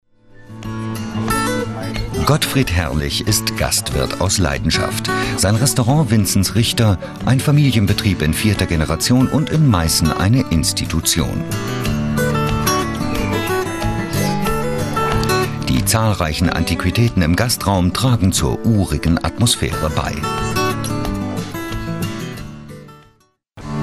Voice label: Documentary